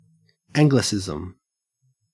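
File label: "anglicism", Australian English; pronunciations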